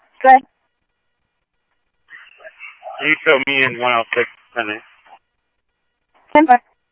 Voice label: Scottsdale Police, scanner audio; H-Scottsdale